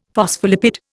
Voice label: "phospholipid", Indian; Dictionary

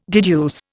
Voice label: "deduce", Indian

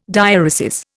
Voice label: "diaeresis", Indian

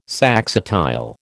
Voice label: "saxatile", American

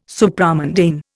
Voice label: "Supramundane", Indian

